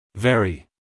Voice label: "very" us male; everyone-can-use-english